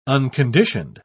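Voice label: "unconditioned", British English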